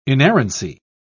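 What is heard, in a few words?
"inerrancy", British English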